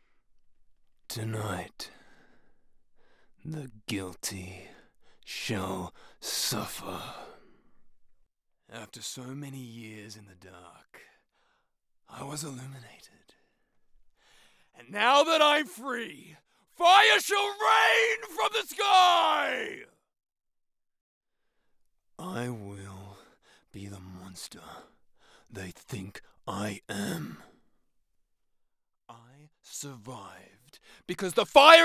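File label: Video Game Military Australian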